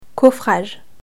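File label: "coffrage", French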